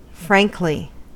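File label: "frankly", American English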